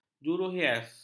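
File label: "juruhias", Malay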